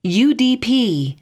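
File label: "udp", English; asterisk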